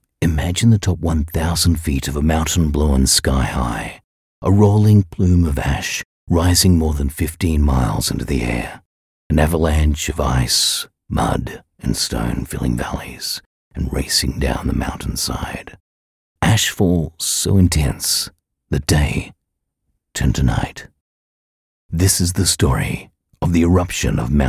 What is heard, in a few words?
English Australian documentaries